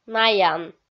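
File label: "наян", Komi, female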